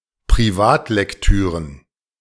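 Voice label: "Privatlektüren", German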